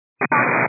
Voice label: 122 725MHz, scanner radio